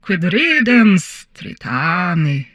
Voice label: humanoid female; Stellaris; humanoids